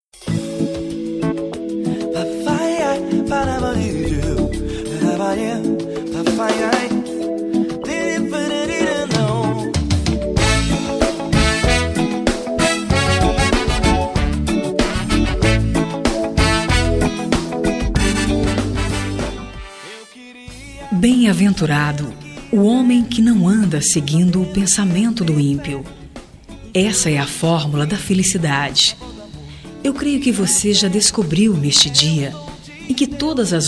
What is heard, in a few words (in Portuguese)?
Aniversario Geral Masculino, telemensagem